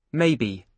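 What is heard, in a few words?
"maybe", British English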